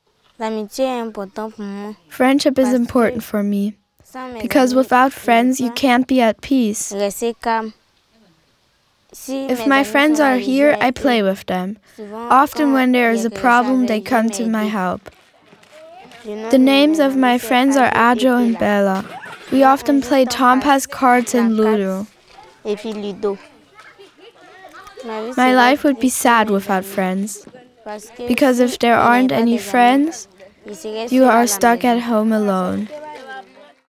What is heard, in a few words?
Overvoice EN